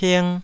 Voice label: "ping2", Taiwanese